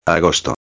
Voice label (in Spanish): "agosto", espanhol